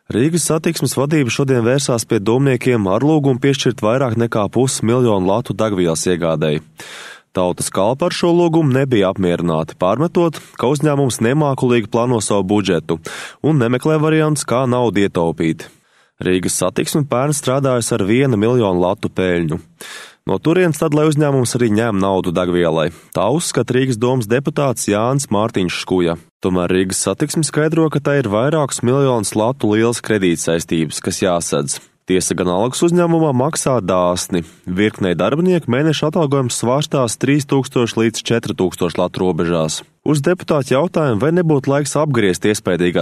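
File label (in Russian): голоса, Latvian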